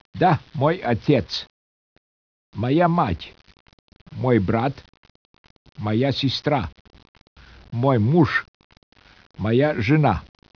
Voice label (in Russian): SPEECH, RUSSIAN